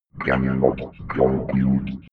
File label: robot voice cannot compute fx